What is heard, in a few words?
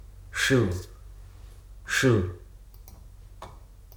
"heat", Hruso